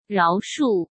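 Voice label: "饶恕", Mandarin Chinese; hsk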